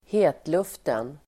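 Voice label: "hetluften", Swedish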